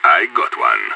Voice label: enemy down, radio; IDF

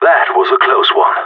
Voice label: round won close, radio; GSG9

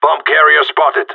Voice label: bomber spotted, radio; GSG9

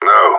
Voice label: negative, radio; GSG9

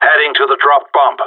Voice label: going to guard loose bomb, radio; GSG9

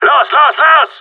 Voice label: move it, radio; GSG9

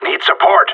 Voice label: need help, radio; GSG9

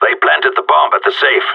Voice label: bomb planted, radio; GSG9

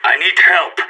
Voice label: pinned down, radio; SAS

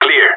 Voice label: clear, radio; GSG9

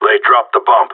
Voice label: spotted loose bomb, radio; GSG9

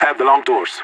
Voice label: long doors, radio; LEET

